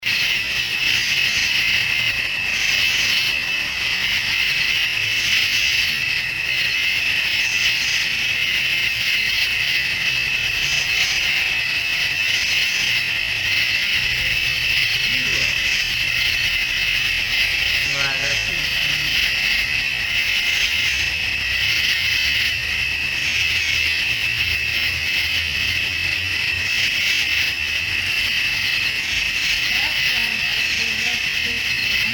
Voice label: male voice; Case 016 Hebrew-Bryant Cemetery